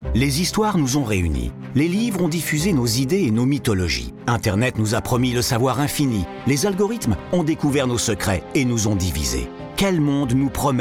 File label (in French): spot; FRANCE INTER